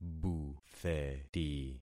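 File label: "butheti", concatenated syllables; Affixing experiment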